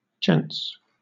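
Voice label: "gents", British English; pronunciations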